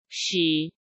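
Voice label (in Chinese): "使", Mandarin Chinese; hsk; chinese-flashcards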